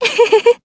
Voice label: Happy, Jp; Talisha; kings raid